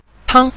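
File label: "tang", Mandarin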